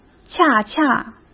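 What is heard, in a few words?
"恰恰", Mandarin Chinese; chinese flashcards; hsk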